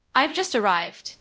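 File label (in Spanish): Voice Acting, es, female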